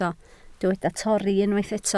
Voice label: "torri", Welsh